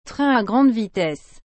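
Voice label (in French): "train a grande vitesse", French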